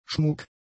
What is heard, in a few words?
"schmuck", German; adjectives